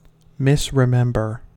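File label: "misremember", US English; pronunciations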